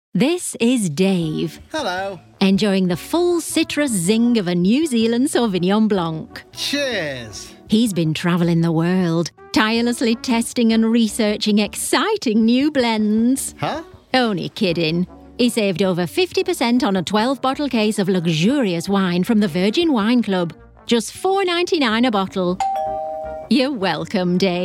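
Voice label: commercial, female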